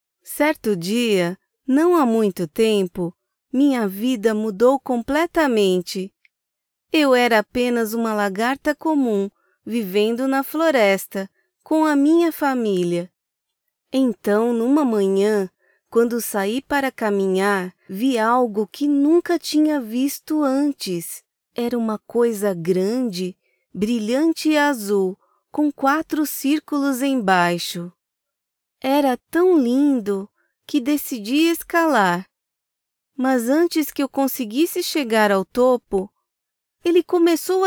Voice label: Children Audiobook PT-BR, Brazilian Portuguese female